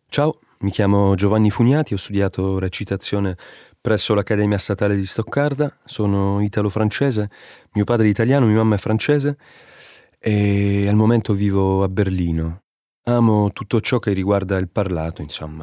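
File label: Vorstellung Italian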